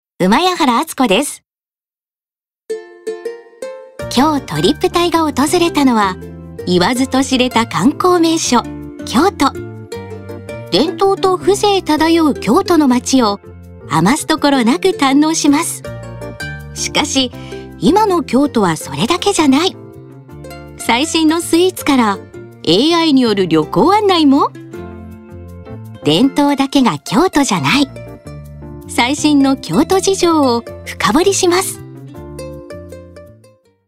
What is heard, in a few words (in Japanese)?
ナチュラル